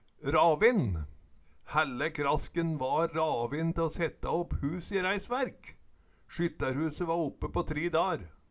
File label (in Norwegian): "ravin", Numedalsmål